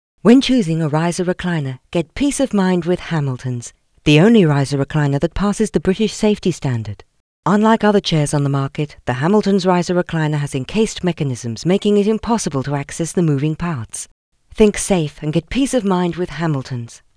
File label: VO Chatty